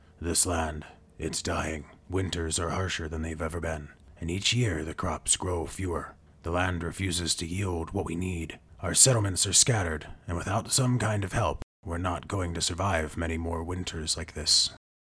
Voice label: elder, Wise and authoritative dwarven elder with gravitas, age, and ancient knowledge, Audiobook NPC; Elder Barrik